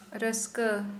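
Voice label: "happy", Santali, female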